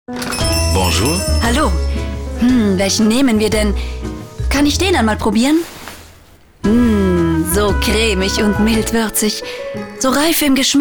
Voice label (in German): Frau